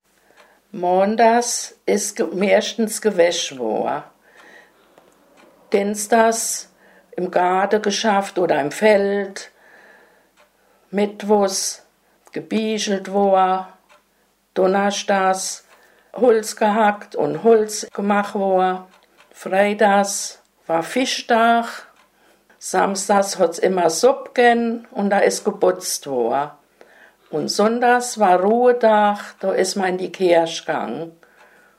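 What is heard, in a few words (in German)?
wochenplan, Hunsrück; Sohren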